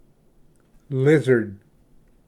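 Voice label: "lizard", English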